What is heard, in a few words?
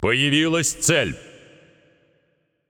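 Announcer Russian Objective Spawn, female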